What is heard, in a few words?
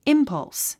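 "impulse", 英単語 発音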